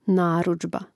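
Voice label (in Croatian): "narudzba", Hrvatski; mreznik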